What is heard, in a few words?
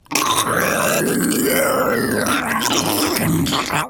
Zombie Idle